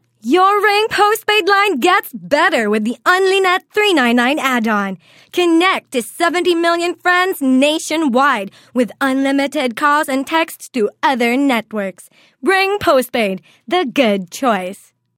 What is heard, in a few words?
English, Energetic, Exciting, Selling